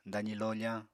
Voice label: "dazi lofañ", Apatani; [daɲi loʎã] n. mid-day; noon

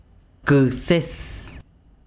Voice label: besiro cuchillo, chiquitano

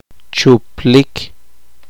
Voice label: poqomchi; apagado

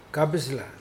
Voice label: "let free", Mokilese

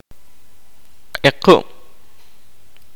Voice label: kaqchikel; obscurity

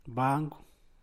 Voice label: "bangu", San Mateo Macuilxochitl Zapotec; stepping stool; banco

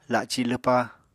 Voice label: "laci lwpa", Apatani

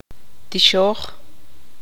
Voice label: tzutujil; derramar algo

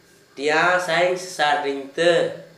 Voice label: Gta hen is clucking, Dialect: Hill; hen is catching

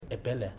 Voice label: "Thank U", Ipili

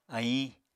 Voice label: "aiñ", Apatani

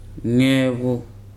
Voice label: "egg", Weri

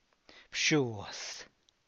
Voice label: priest father, Zapotec; bxuaz; (Spanish) sacerdote

